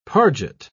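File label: "parget", British English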